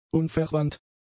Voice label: "unverwandt", German; adjectives